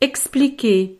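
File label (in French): "expliquer", French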